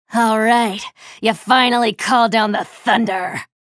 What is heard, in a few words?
chatter negative; slash; respawn